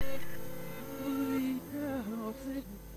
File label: morphine, backmasking